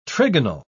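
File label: "trigonal", British English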